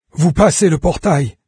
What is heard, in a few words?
portal, fr narrator; Fate Undiscovered Realms